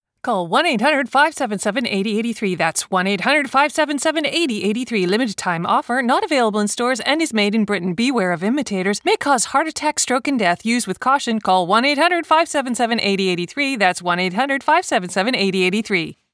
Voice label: fast read, voiceover